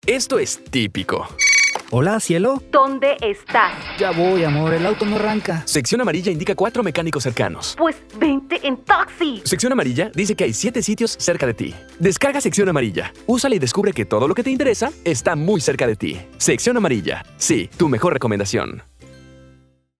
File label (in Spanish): Radio Autos